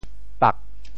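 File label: "pak4", Teochew; 蝮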